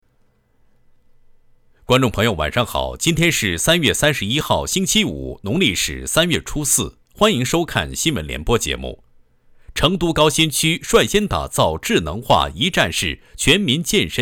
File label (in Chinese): 新闻联播 快速